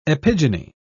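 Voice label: "epigyny", British English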